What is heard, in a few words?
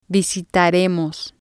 "visitaremos", Spanish